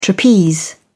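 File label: "trapeze", British English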